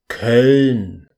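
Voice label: "koeln", German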